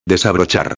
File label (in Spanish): "desabrochar", espanhol; palavra do dia